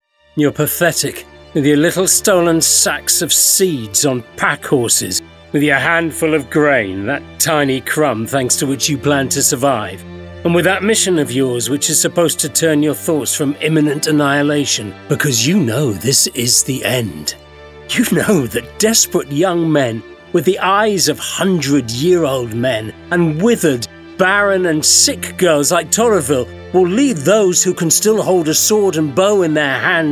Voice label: Gaming Fantasy Character